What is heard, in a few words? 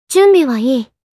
"Prepare", Kawaii Voice, Commands